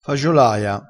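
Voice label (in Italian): "fagiolaia", vocabolario dialettale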